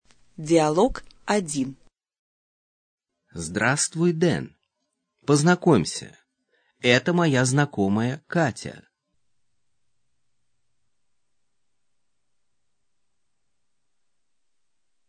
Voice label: dialog, russian